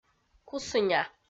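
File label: "кусыня", Komi, female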